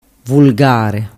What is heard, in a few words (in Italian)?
"vulgare", dialettu